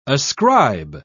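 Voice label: "ascribe", British English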